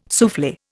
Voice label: "souffle", Indian